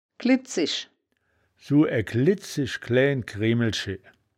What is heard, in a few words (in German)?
"klidzisch", wittlich